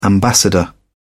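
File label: "ambassador", English, British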